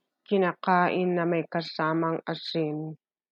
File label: Tagalog; eaten with some salt - kinakain na may kasamang asin